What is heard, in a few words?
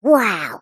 cartoon character high pitched says "wow"